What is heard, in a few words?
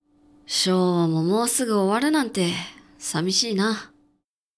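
male; 男性 「昭和ももうすぐ終わるなんて、寂しいな。」; クール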